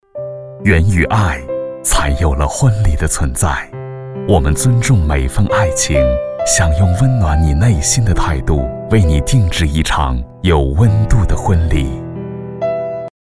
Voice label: 男国 广告 品牌形象 私属婚礼 温情; 爱沐私属婚礼

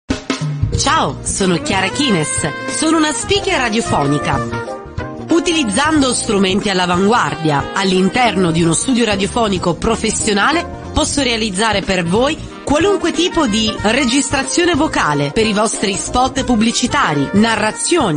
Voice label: 意大利语女 外语 小语种 时尚

